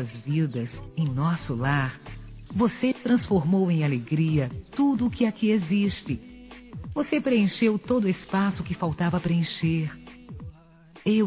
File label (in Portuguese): Filha Criança Feminino; Aniversários; Aniv Familiar